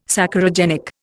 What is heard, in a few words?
"Saccharogenic", Indian